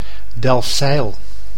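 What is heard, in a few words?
"Delfzijl", Dutch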